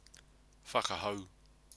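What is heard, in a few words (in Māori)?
"whakahou", Māori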